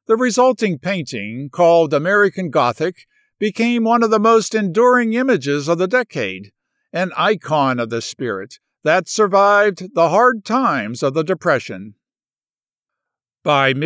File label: Documentary; Demo Reel